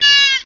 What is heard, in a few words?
"oh", mosquito; ooh ahh